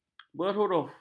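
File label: "berhuruf", Malay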